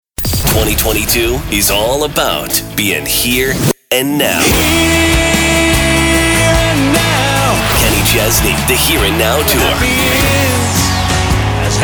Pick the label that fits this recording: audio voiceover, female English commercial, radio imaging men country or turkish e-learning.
radio imaging men country